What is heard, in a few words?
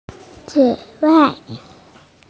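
"zi3dan4", Mandarin Chinese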